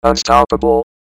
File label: "unstoppable", robot; cstrike; quake